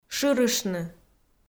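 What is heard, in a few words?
"шырыштны", Komi, female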